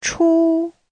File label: "chu1", chinese